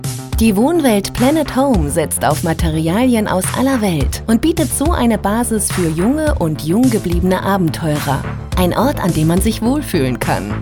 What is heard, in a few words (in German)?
Imagefilm